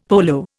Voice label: "polo", Indian; Dictionary